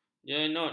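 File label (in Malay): "jenut", Malay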